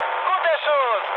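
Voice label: German player killed enemy mood high, speech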